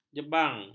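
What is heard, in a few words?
"jebang", Malay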